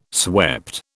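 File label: "swept", British English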